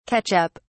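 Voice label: "catch up", British English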